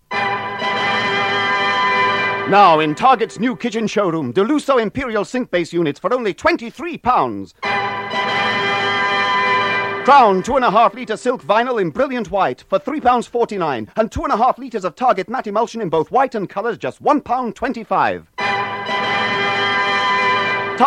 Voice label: Radio Hallam Advert; Target Kitchen Showroom